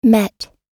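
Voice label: "met", American English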